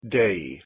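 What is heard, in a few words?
pronunciation "day", English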